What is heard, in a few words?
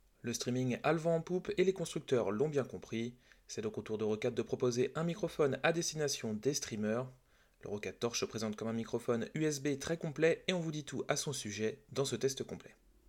soundtest whisper brut; roccat torch